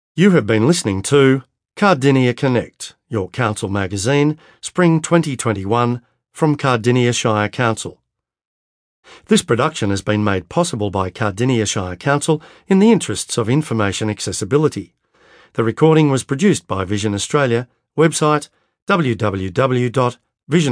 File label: closing announcement